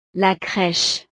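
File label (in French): "la creche", French; navidad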